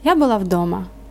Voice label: dialogue, Ukrainian